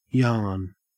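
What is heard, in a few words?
"yarn", Australian English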